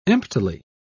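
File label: "emptily", British English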